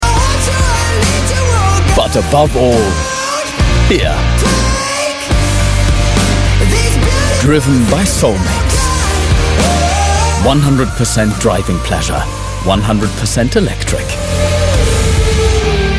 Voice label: ENGLISCH, NATIVE UK - cool, modern, souverän, Commercial (Werbung); English UK; BMW 100% Electric; The Soul of BMW